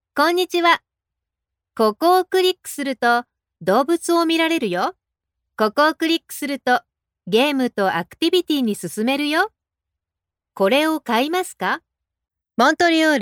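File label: Japanese VO Showcase; JPN; Female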